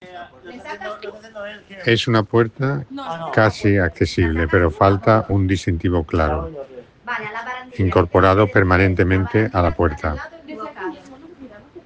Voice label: Voice message